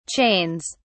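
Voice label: "chains", British English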